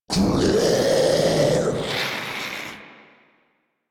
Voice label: Spawn Grineer Ghoul Devourer, en